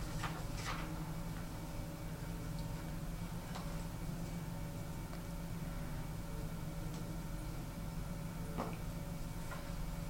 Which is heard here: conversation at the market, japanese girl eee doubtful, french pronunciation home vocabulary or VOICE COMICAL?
conversation at the market